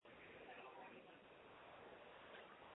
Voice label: call recording